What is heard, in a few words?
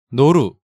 "Noru", Hangeul sounds; DigitalSoju